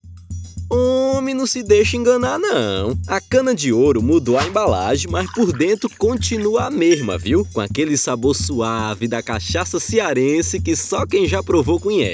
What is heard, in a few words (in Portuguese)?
Repertório Nordestino